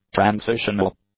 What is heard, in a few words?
"transitional", English; adjectives